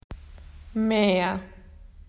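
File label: "more", Australian English; PictureDictionary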